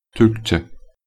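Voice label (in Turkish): "Türkçe", Turkish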